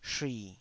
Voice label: "shui3", taiwanese Syllables